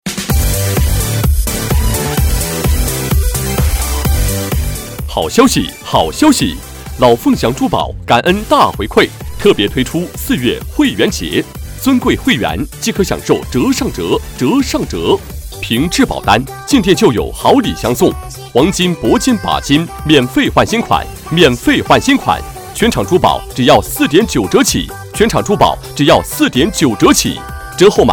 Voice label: 男 国语 磁性 激情, 广告; 老凤祥珠宝

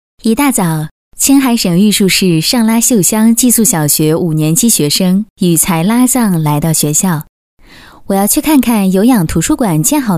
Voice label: 女国语, 专题; 点亮玉树公益扶贫行动

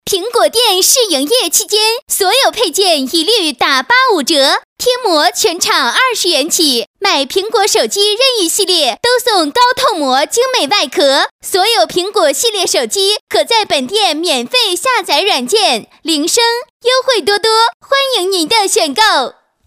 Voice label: 女国语, 广告; 苹果店试营业期间

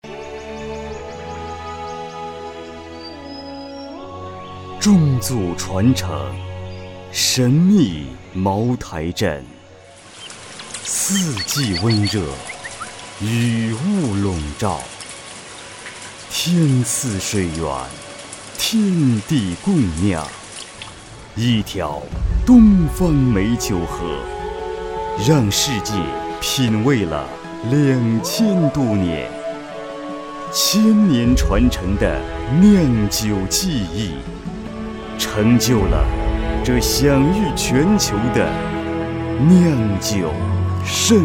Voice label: 男, 国语, 大气 磁性, 专题; 神秘茅台镇

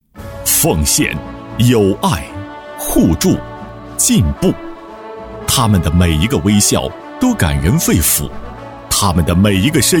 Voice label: 男 国语 配音, 浑厚 大气 时尚, 颁奖; 金港镇颁奖词

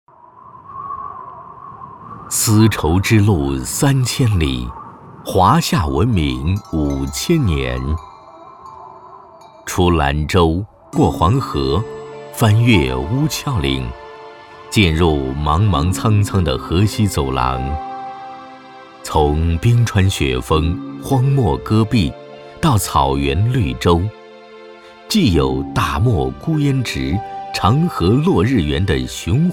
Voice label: 【专题】, 国语; 丝绸之路三千里

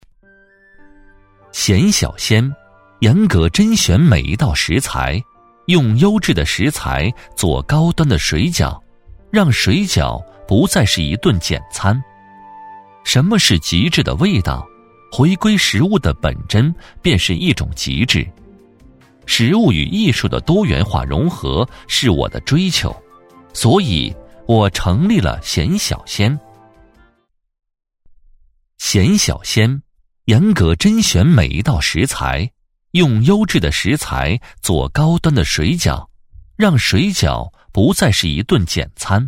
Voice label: 男国语, 磁性, 明亮

